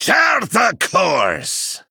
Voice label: Male Dwarf